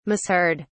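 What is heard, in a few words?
"misheard", British English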